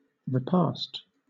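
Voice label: "repast", British English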